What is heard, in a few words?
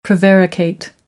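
"prevaricate", American English; AmericanBritish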